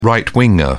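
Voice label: "right winger", British English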